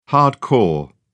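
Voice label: "hard core", British English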